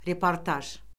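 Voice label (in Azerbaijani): "reportaj", Azerbaijani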